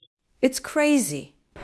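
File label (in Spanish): Voice Acting, Spanish, female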